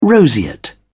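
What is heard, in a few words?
"roseate", British English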